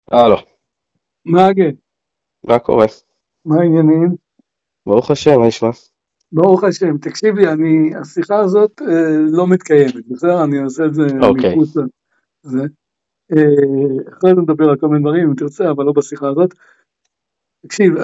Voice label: Call recording